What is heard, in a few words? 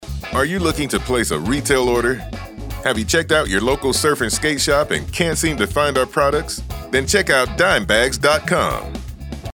IVR on hold